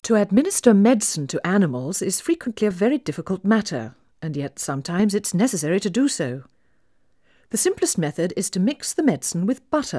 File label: Female speech English Mono output noised, 3bit